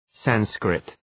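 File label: "Sanskrit", English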